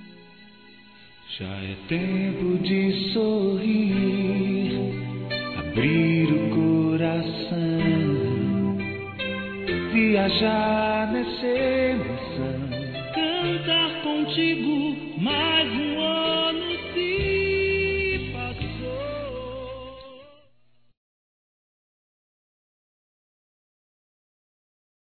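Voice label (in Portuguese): ANO NOVO NEUTRO, Feminino; Telemensagens; Natal e Ano novo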